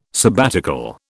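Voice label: "sabbatical", British English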